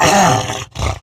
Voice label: Pain Grineer Wolf, en; Battle Barks

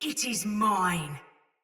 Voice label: Attack Takeover Soldier Mace Agent Female; Battle; Sinecure Melee

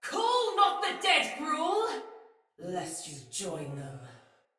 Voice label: Female; Battle; Sinecure Soldier; en

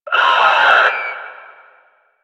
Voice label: Enemy Pain; Warframe; Lasrian; Lasrian Enemy C

